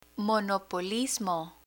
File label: "monopolismo" Spanish; vocabulary; learn spanish